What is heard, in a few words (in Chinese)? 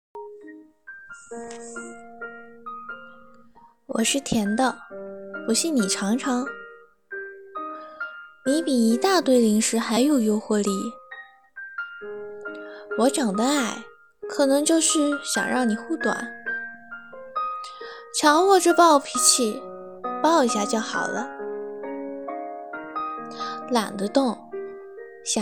少女音